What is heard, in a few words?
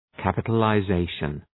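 "capitalization", English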